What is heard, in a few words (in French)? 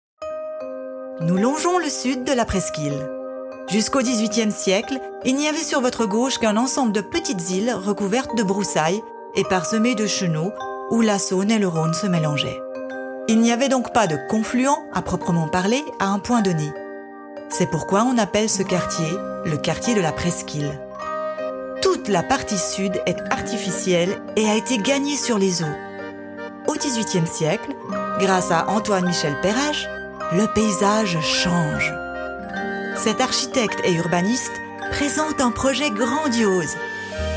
Voix Off AUDIOGUIDE; Presqu'ile Lyon